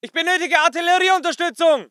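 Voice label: voice german infantry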